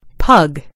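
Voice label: "pug", British English; gcompris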